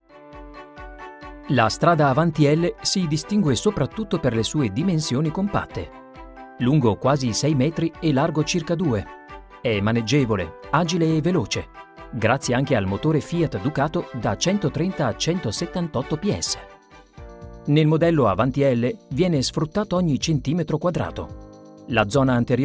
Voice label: presentation; la strada avanti

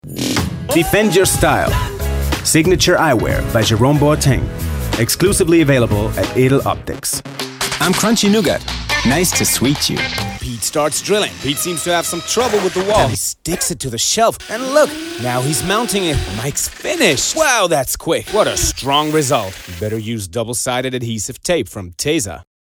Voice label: Eng Voice; LOFT VOICES

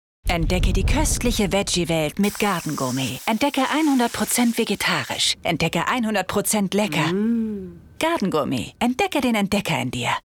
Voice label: tv spot; garden gourmet

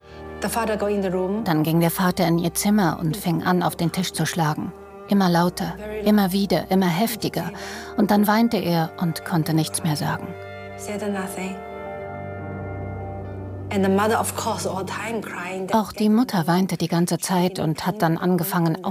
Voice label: vo doku; zdf

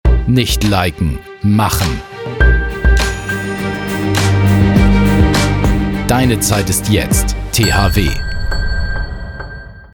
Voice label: TVC; THW

